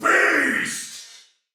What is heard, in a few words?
Attack Normal Soldier Male, Battle; Dialog; Barks; Sinecure